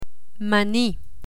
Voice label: "mani", Spanish; learn spanish

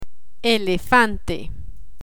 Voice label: "elefante", Spanish; spanish alphabet

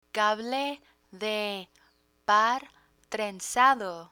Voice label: "cable de par trenzado", Spanish; technology vocabulary; learn spanish

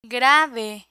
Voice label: "grave", Spanish

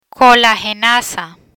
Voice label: "Colagenasa", Spanish; medical spanish dermatology medicines; learn spanish

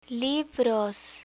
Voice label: "libros", Spanish

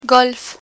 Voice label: "golf", Spanish

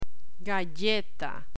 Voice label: "galleta", Spanish

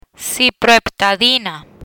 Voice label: "Ciproheptadina", Spanish; medical spanish antihistamines; learn spanish

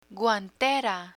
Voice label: "guantera", Spanish

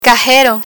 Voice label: "cajero", Spanish; banking vocabulary

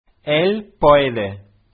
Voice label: "el puede", Spanish

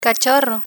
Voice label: "cachorro", Spanish

